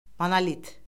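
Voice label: "monolit", Azerbaijani; Orfoqrafiya Lüğəti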